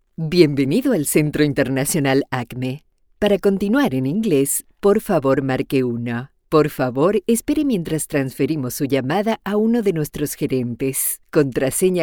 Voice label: spaans

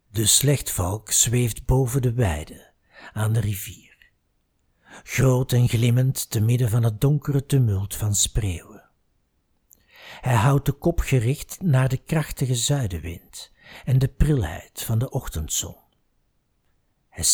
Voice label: Documentaire; DE SLECHTVALK; Ivo Victoria

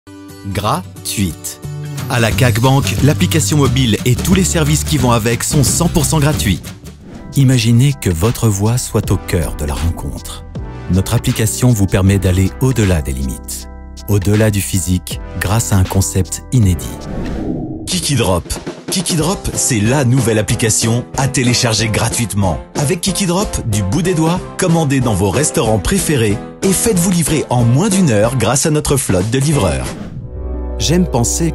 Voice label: Franse voice over stemacteur; Voix Off Démo

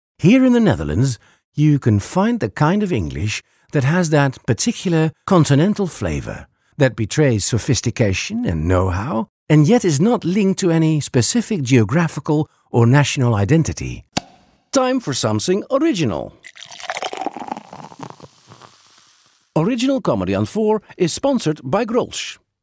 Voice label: engels

